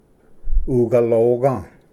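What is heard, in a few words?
"ugaloga", Cherokee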